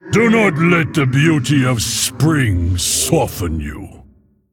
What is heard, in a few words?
Male Mogu, HAPPY NOBLEGARDEN